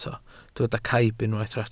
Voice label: "caib", Welsh